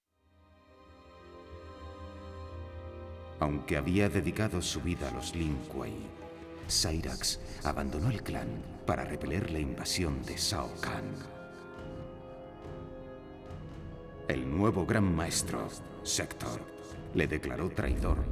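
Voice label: music voice-over endings Spanish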